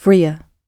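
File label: "freer", British English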